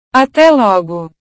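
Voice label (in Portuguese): "ate logo", Portuguese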